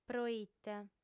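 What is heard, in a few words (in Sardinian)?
"proite", female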